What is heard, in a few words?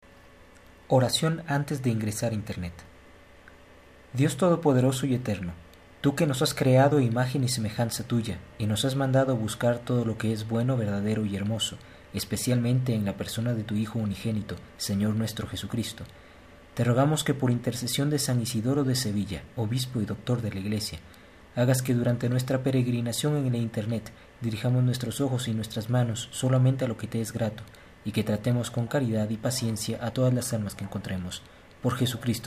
prayer spanish mex